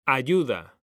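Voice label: "AYUDA", Spanish, male; mekanta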